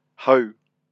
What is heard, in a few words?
"hau", Māori